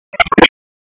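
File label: Raleigh Police North District Tactical, p25; wake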